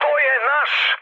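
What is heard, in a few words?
Serbian player friendly fire mood med; War Thunder; speech srb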